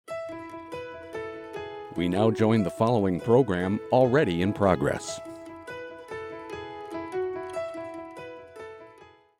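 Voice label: Announcer Join In Progress male; Promotions; Rebrands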